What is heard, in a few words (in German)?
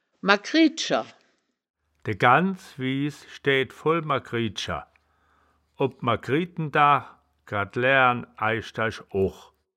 "magried scher", Wittlicher; das wittlicher woerterbuch; woerterbuch vertonung